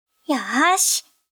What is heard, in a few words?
Vo Female; gekiban